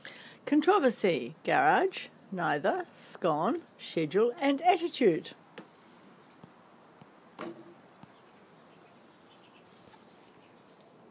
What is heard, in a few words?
Gisborne NZ female